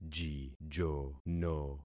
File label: "jijono", concat syllables; Affixing experiment